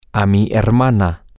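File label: sister, Spanish, male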